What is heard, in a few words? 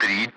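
Speech Russian; Allied Flight; Digits; DCS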